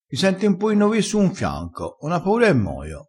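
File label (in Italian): "senti", dialettale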